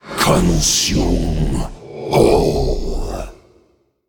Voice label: Male Void Wraith Play

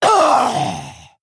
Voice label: Female Orc Death

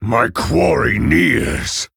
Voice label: Male Orc Ogre Attack

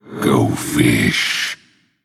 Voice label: Male Undead Attack

